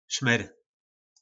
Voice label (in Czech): "Šmyr", nářečí Po našimu; Vazelina